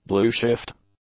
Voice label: "blueshift", English; nouns